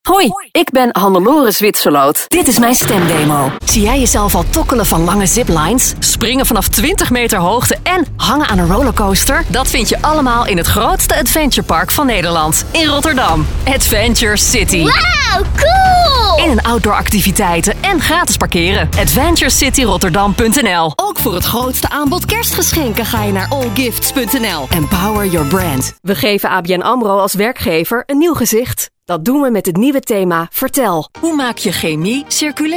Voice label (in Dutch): COMMERCIALS VOICEOVERS TELEFOONTEKSTEN